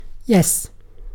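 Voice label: "yes", British English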